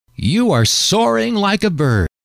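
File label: you are soaring like a bird, male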